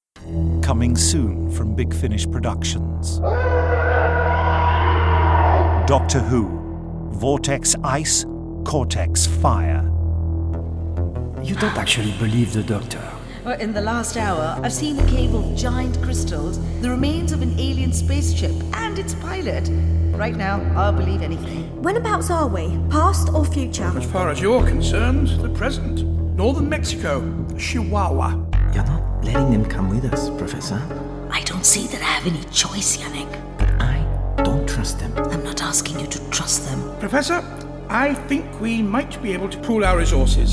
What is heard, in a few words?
trailer